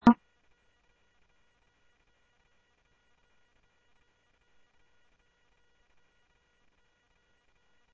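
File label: Sheriff East County, scanner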